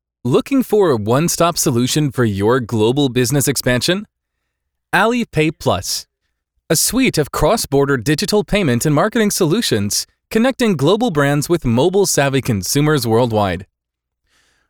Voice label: 外籍; 支付宝

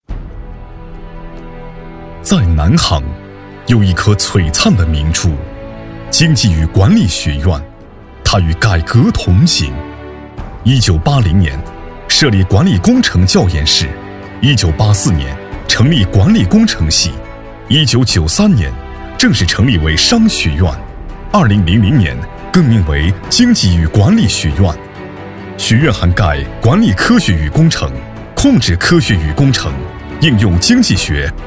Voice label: 大气讲述; 大气讲述南航经济与管理学院

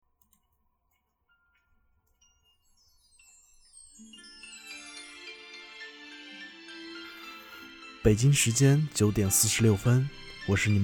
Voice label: 电台

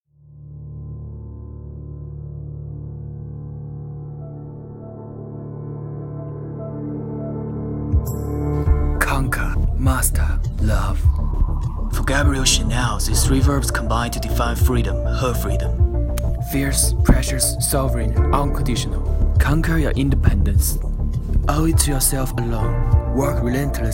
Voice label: 旁白; 香奈儿

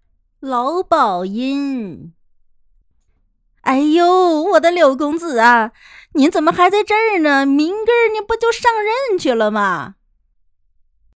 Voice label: 老鸨音

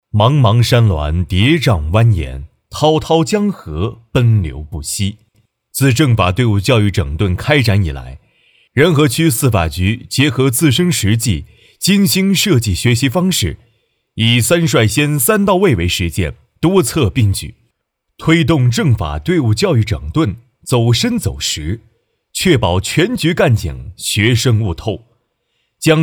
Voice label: 专题严肃

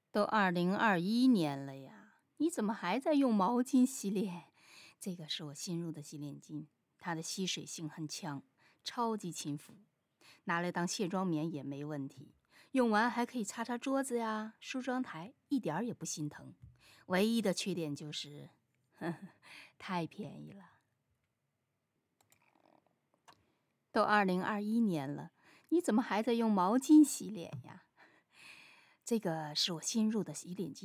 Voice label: 配音; 配音洗脸巾

